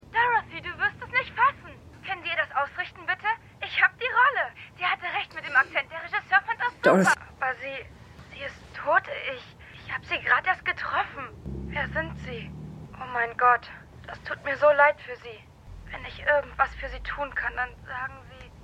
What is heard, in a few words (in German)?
Anruferin; Jessica Jones